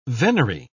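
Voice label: "venery", British English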